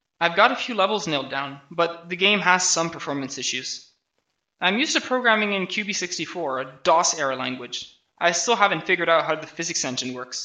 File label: voice, narration